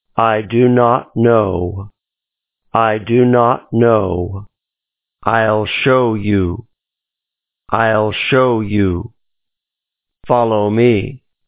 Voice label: directions male